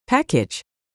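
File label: "package", English pronunciation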